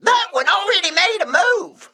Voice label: Male Mech Emote error minion attacked